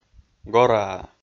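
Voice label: "гораа", Komi, male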